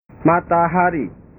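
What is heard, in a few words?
"matahari", Indonesian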